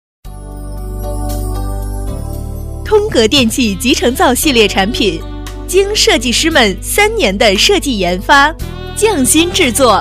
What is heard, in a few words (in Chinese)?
女 通格电器, 国语女声配音 彩铃广告